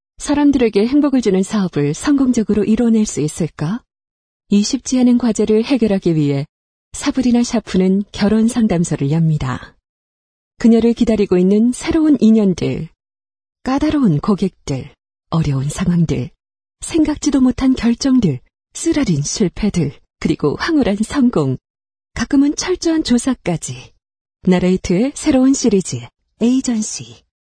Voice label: Korean Voice Sample